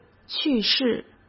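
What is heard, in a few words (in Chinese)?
"去世", Mandarin Chinese; chinese flashcards; hsk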